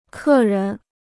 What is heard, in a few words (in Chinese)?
"客人", Chinese